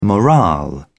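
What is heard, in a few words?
"morale", British English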